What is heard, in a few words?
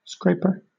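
"scraper", British English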